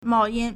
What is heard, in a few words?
"mao4yan1", Chinese